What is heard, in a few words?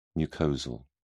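"mucosal", British English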